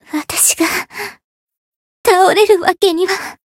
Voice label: retire jp